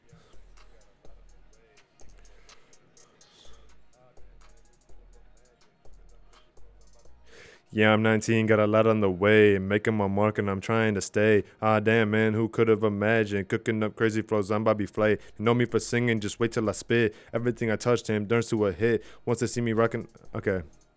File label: recordin Mic Input; flstudio; Vocals